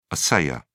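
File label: "assayer", British English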